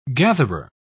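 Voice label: "gatherer", British English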